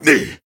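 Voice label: damaged, voice, Japanese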